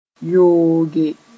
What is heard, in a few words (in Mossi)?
"yooge", moore; to filter